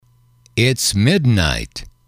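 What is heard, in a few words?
it's midnight, male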